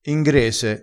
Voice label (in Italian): "ingrese", crespinese